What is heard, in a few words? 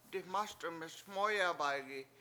"diMASd1miS MOOyabaygi" I hid they ran by, Washo